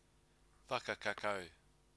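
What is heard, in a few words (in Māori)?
"whakakakau", Māori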